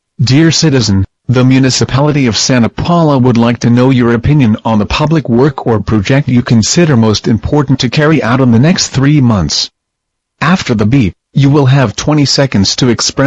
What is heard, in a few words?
English Political Municipality Survey; massive calls